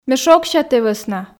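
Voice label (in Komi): ме шогся тэ вӧсна, Komi, female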